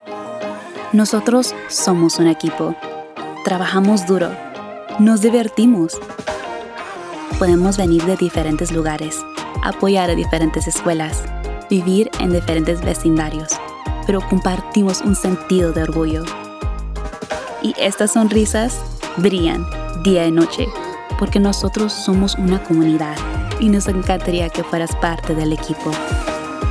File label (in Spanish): HiringCampaign ESP; McDonalds